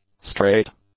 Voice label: "straight", English; adjectives